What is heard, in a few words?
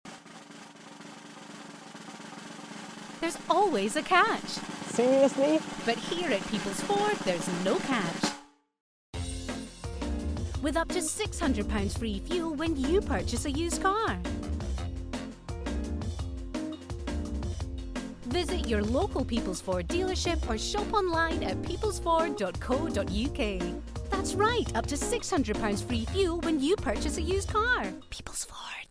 ad; Peoples Ford